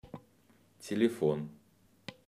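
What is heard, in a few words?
"telefon", Russian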